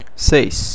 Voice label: "seis", Portuguese